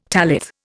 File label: "tallith", Indian